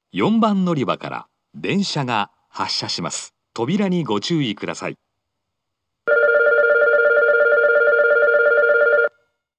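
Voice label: departure, station announcement, Fukuoka city subway; 発車-4番のりば; 福岡市地下鉄 空港線・箱崎線 中洲川端駅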